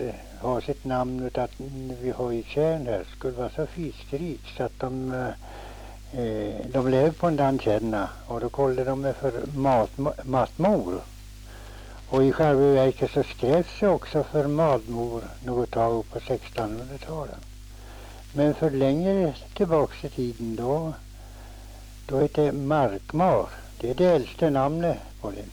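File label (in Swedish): Mattmar Jämtland, dialekter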